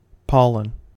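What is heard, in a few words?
"pollen", US English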